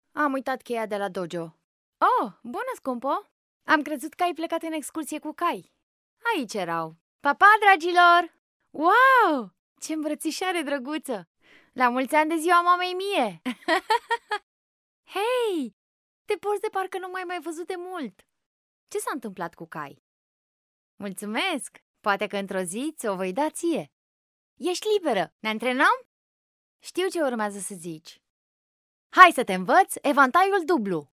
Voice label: romanian animation